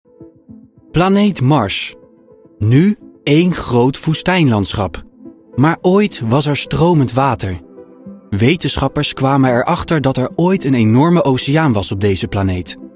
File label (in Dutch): narratieve corporate